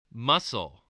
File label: "muscle", voice